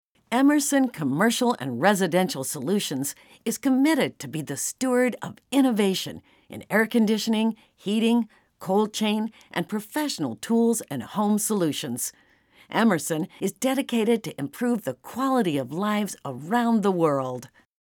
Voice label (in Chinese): 英文解说; 爱默生电气公司